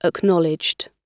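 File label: "acknowledged", British English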